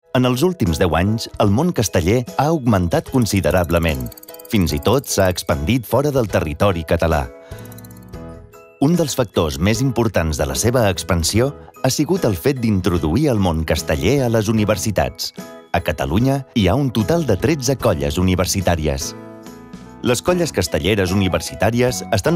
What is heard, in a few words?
catalan podcasts